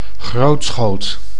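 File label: "grootschoot", Dutch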